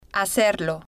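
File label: "hacerlo", Spanish, female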